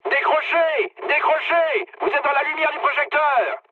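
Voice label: searchlite, mood low, French; War Thunder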